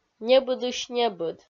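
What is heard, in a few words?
"небыдысь-небыд", Komi, female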